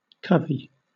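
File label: "covey", British English; pronunciations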